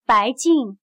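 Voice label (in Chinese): "白净", Chinese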